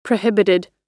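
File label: "prohibited", US English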